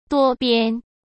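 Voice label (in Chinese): "多边", Mandarin Chinese; chinese flashcards; hsk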